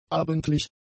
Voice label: "abendlich", German; adjectives

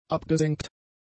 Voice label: "abgesenkt", German; adjectives

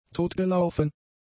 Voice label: "totgelaufen", German; adjectives

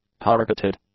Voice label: "targeted", English; adjectives

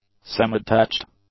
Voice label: "semidetached", English; adjectives

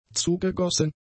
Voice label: "zugegossen", German; adjectives

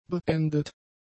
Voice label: "beendet", German; adjectives

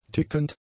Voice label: "tickend", German; adjectives

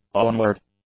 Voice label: "onward", English; adjectives

